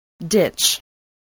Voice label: "ditch", spelling

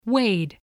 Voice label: "wade", spelling

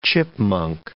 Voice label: "chipmunk", English

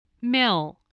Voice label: "mill", voice, spelling

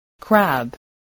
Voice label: "crab", voice spelling

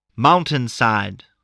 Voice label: "mountainside", voice, spelling

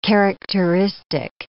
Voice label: "characteristic", English

